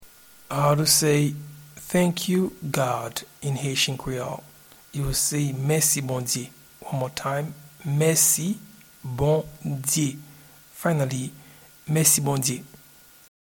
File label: Thank you God in Haitian Creole Mesi Bondye